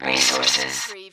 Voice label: Robot voice "resources"